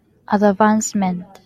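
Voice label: "advertisement", Japanese female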